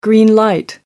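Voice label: "green light", British English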